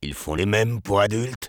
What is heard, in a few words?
sniper taunts, fr